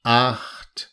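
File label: "acht", German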